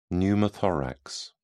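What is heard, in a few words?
"pneumothorax", British English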